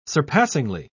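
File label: "surpassingly", British English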